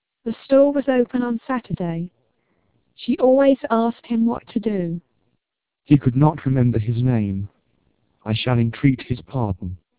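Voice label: twelp 1600 British speech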